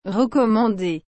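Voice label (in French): "recommandé", French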